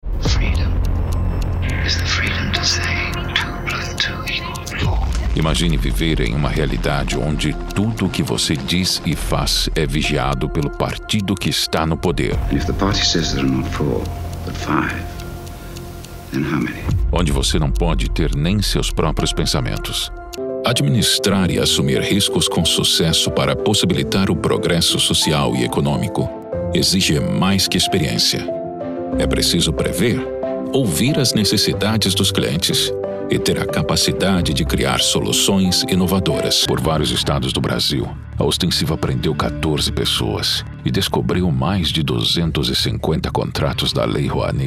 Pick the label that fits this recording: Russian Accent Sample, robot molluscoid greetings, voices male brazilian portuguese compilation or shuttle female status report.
voices male brazilian portuguese compilation